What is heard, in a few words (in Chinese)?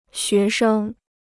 "学生", Chinese